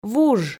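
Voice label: "вуж", Komi, female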